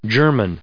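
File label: "German", English, us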